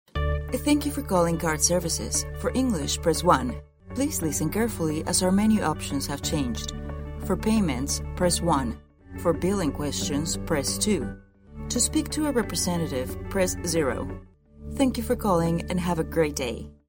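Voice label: Spanish Latin America female English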